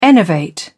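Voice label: "enervate", British English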